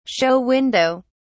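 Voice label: "show window", British English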